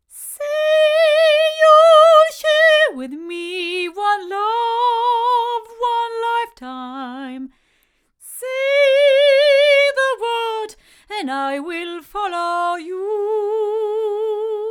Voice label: ADK A51S Model S female, microphones

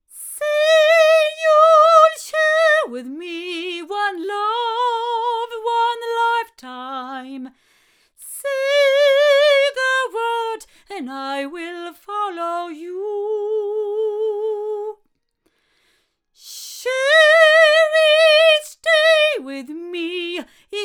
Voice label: Avant CK-33 female

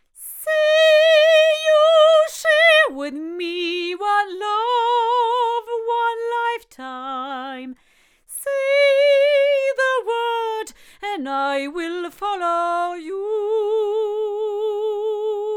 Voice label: female; Babybottle; BLUE

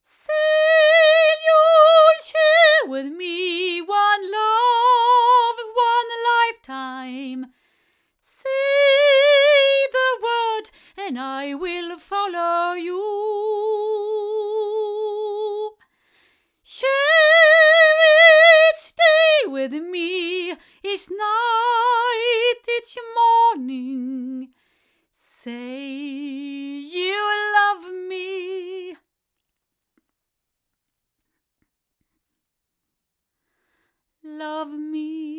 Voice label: C6000 Pro female, microphones; JJLabs

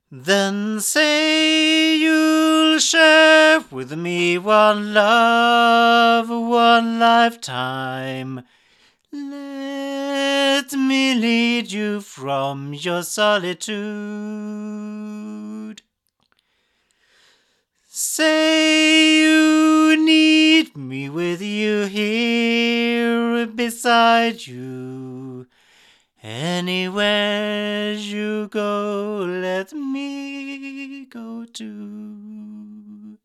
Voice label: Golden Age D1 male

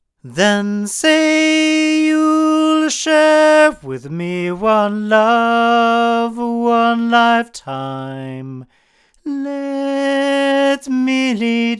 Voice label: TL66 male, microphones recordings; Pearl

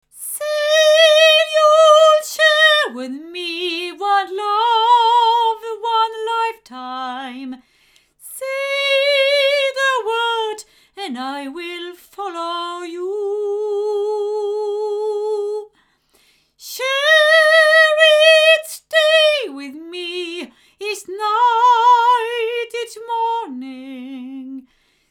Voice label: Oktava MKL-5000 omni female

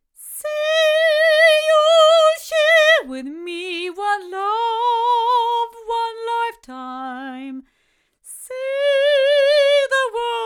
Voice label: A51ST cardioid female; ADK

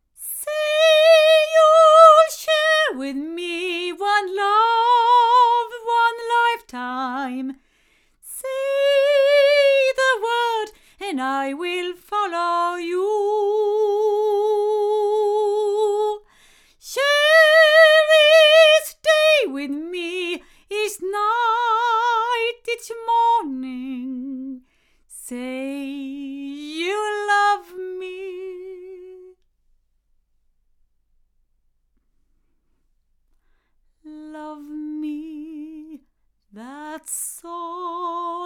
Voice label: ADK Vienna female, microphones

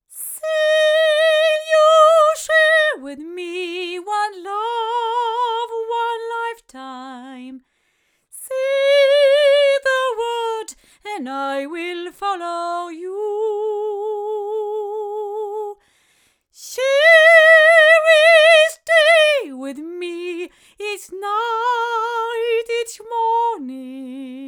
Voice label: cardioid female; studio projects

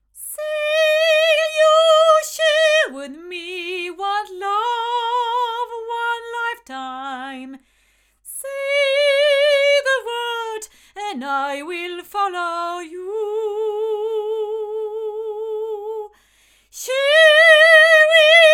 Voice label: omni female; Studio Projects LSD2

